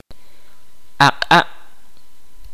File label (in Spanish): "noche", Kaqchikel